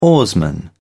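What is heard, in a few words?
"oarsman", English, British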